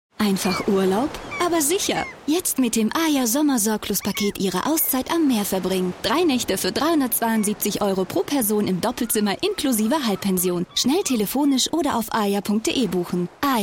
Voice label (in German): Spot; Sommer Sorglos Paket; LOFT VOICES